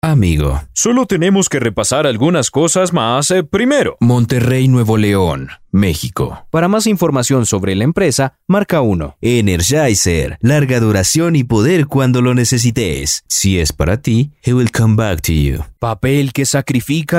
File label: spanish latin american neutral commercial